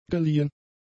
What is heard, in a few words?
"geliehen", German; adjectives